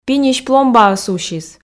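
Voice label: "пиньысь пломбаыс усис", Komi, female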